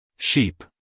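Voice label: "sheep", American English, male; everyone-can-use-english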